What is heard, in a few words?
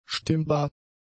"stimmbar", German; adjectives